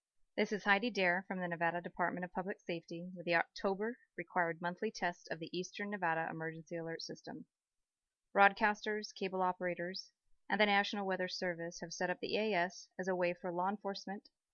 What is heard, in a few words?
EAS